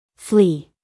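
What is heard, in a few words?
"flee", American English female; everyone-can-use-english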